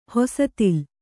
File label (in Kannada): "ಹೊಸತಿಲ್", Kannada